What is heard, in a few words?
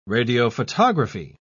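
"radiophotography", British English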